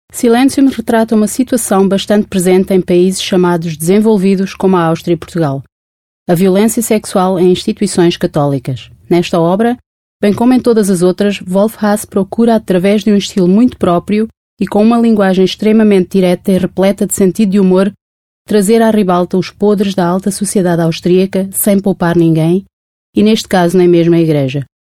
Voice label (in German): Portugal W